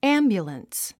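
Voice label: "ambulance", English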